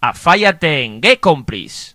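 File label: welcome, Brazilian Portuguese; gcompris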